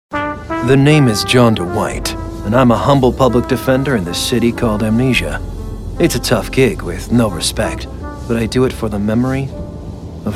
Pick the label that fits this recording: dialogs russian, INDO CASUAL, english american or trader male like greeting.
english american